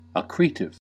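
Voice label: "accretive", US English; pronunciation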